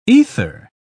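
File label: "aether", English, uk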